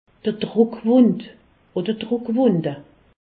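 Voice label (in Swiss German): "druckwund", Alsatian; medecine; escarres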